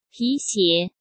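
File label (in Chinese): "皮鞋", Mandarin Chinese; hsk; chinese-flashcards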